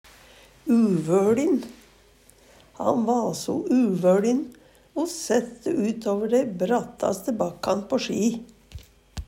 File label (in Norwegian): uvøLin, Numedalsmål; Han va so uvøLin o sætte utover dei brattaste bakkan på ski.; Adjektiv